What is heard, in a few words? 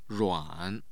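"ruan3", Mandarin Chinese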